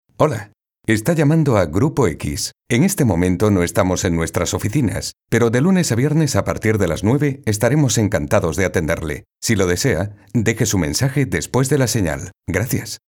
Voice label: IVR PHONE